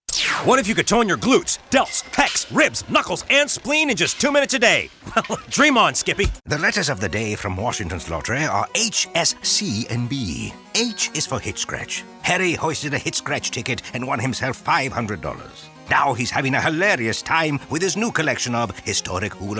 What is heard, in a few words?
Character; voice demos